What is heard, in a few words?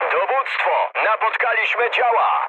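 Polish damaged anti-aircraft mood medium; speech; War Thunder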